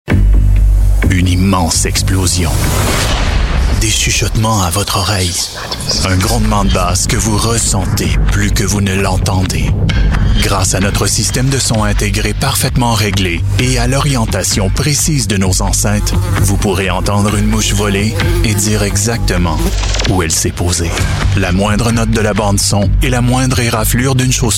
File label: french voice over male narrator